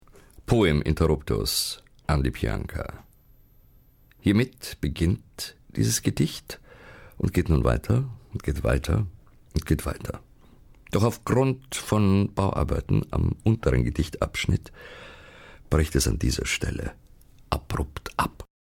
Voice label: Poem